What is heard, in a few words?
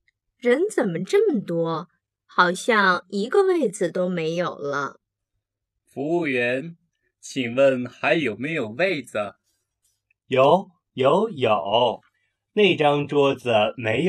lesson dialogue slow